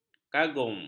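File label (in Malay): "kagum", Malay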